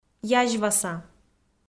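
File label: "язьваса", Komi, female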